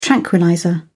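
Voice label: "tranquillizer", British English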